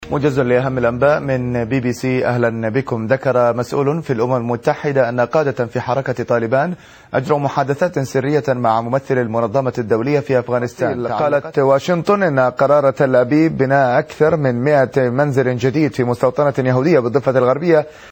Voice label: arabic news